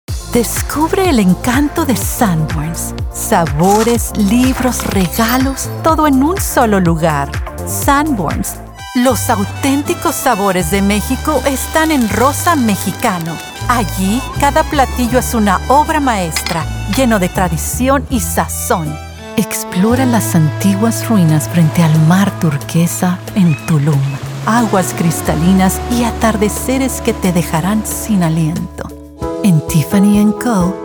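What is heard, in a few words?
Spanish, Bilingual Spanish voice artist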